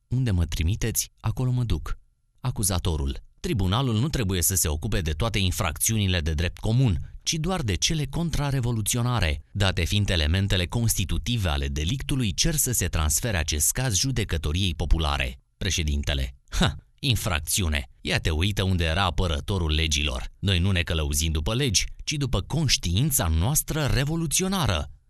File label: Audio Book